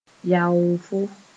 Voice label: "yaoofo", moore

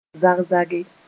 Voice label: "zag-zage", moore

